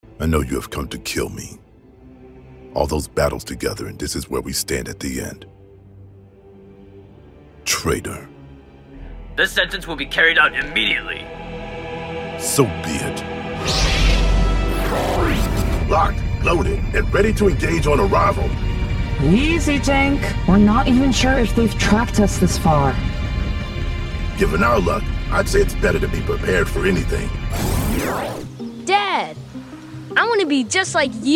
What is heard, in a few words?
animation reel, voiceover